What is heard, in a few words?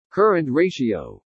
"current ratio", english